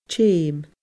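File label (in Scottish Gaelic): "teum", Scottish Gaelic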